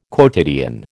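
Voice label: "quotidian", American English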